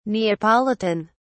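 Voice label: "neapolitan", British English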